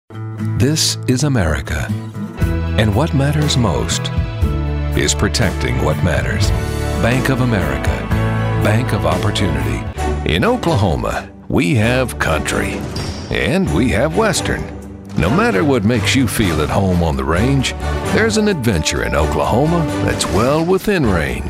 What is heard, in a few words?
commercial